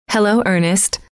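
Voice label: "hello", female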